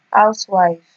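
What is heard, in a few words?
"house wife", Nigerian Pidgin, female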